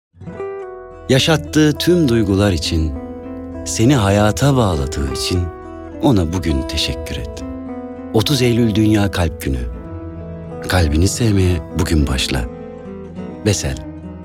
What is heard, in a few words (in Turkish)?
duygusal motive